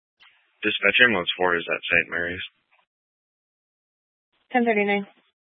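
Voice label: Fire Dispatch, Scanner audio recording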